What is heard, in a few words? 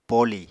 "poli", Apatani